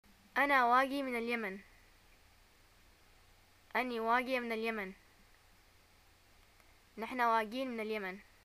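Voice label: I am from, Yemeni arabic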